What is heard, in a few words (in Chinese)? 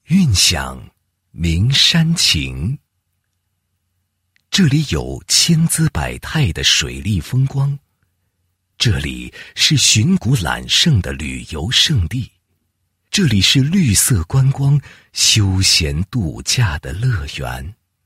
男 旅游宣传 水库风景区解说词; 明山水库风景区